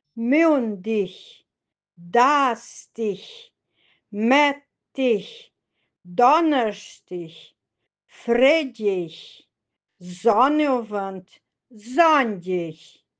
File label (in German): Wochentage im seilichstaderischen Dialekt; schuelerkalender